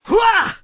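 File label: male attack; rascal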